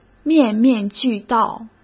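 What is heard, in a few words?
"面面俱到", Mandarin Chinese; chinese flashcards; hsk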